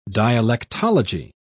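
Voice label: "dialectology", British English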